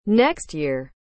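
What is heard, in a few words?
"next year", English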